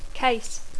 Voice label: "case", English